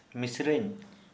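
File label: younger sister, Santali, male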